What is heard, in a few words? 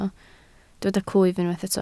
"clwyf", Welsh